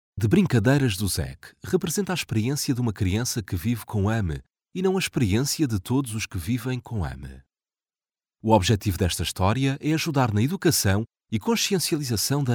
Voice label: male portuguese voice over talent narrative, portugal